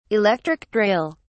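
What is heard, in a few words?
"electric drill", British English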